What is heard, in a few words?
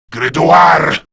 Reload, Male; Warframe; Grineer Male; Battle Barks